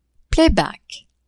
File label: "playback", French; adverbs